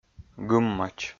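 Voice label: "гыммач", Komi-Zyrian, male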